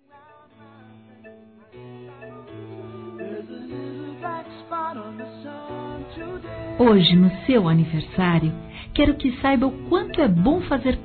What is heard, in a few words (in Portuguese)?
Irmão (ã) Feminino, Telemensagens Aniversários; Aniversários diversos